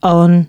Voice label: announcements, female